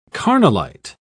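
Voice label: "carnallite", British English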